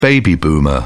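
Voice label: "baby boomer", British English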